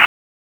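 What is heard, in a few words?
Police Northwest District Tac, radio; Raleigh; wake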